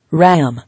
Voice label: "Ram", English